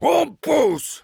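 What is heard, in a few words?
Heavy cart moving forward offense, French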